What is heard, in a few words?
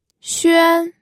"xuan1", pinyin